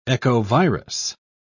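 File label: "echovirus", British English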